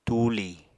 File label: "tuuli", apatani; Material Culture